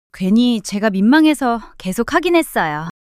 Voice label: shy; monologue

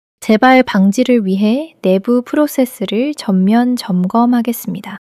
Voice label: neutral

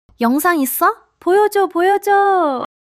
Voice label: excitement, monologue

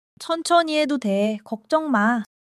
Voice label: joy

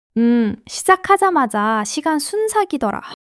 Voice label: joy; monologue

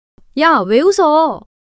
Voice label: fluster, monologue

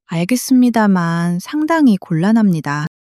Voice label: sadness, monologue

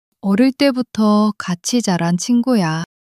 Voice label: sadness, monologue

